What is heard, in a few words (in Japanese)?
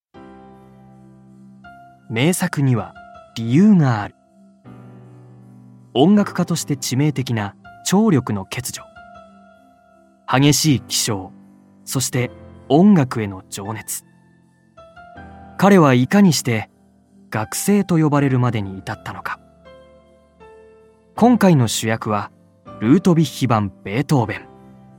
ナレーション